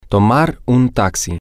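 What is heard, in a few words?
to take a taxi, spanish, male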